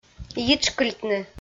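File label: "йитшкыльтны" Komi, female; audio dict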